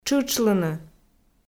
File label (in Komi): "тшытшлыны", Komi